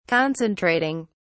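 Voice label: "concentrating", British English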